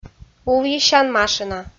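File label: "увйысян машина", Komi, female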